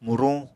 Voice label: "muruñ", Apatani